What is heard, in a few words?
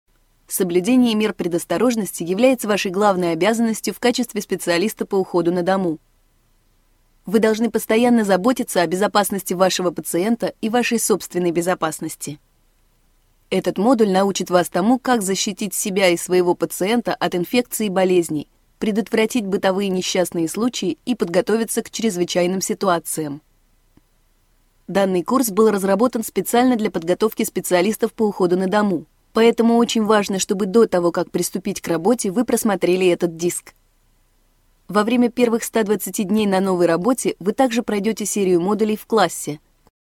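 Russian, female, corporate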